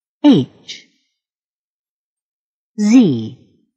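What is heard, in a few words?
h and z words Alphabet pronounciation, English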